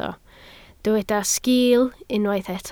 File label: "sgiil", Welsh